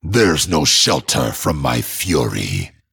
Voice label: Male Dragon Threaten